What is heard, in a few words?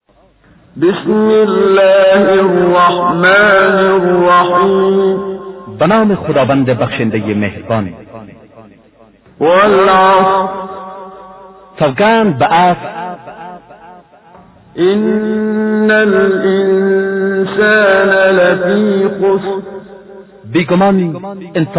Surah Farsi; Menshay Translation